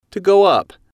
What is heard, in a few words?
"to go up", English